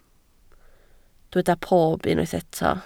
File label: "pob", Welsh